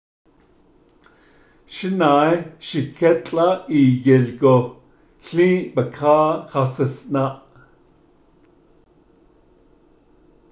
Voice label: navajo My older brother boosted me and I got up on the h; postposition